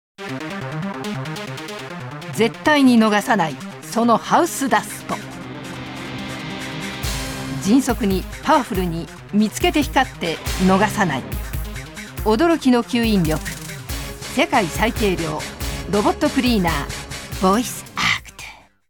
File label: CM robot cleaner, voice